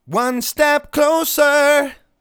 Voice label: "One Step Closer", vocal phrases; Ultimate Vocals